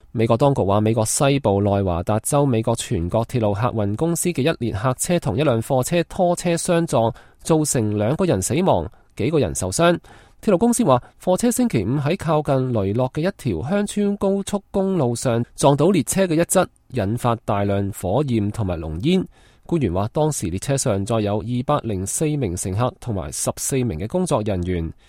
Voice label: news US Train Crash; chinese